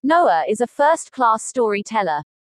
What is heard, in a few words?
speaker 4 - storyteller